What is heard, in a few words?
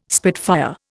"spitfire", Indian